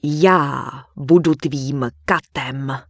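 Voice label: Human Female Warrior NPC Pissed, Czech; wowpreklad; czwow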